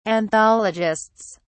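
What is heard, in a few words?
"anthologists", British English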